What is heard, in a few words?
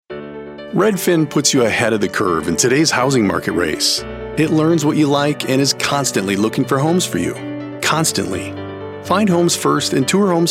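commercial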